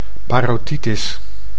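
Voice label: "parotitis", Dutch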